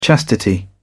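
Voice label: "chastity", British English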